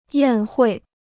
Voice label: "宴会", Chinese

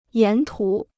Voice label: "沿途", Chinese

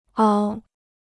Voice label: "凹", Chinese

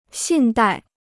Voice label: "信贷", Chinese

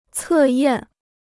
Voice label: "测验", Chinese

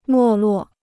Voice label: "没落", Chinese

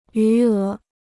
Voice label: "余额", Chinese

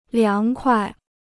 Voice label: "凉快", Chinese

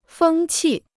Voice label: "风气", Chinese

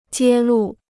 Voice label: "揭露", Chinese

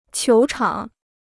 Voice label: "球场", Chinese

